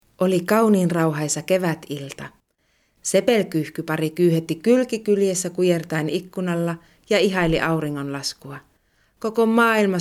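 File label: Finnish narration; erzaehlung; sprecher; finnisch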